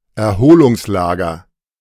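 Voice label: "Erholungslager", German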